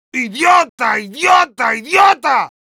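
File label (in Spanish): jeers, Spanish; Heavy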